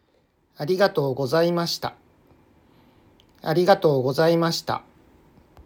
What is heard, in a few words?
Arigato Gozaimashita Pronunciation